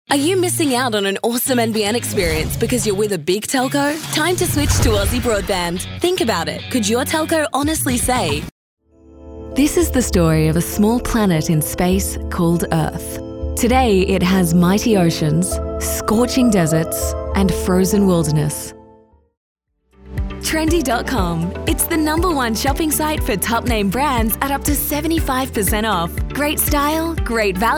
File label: Australian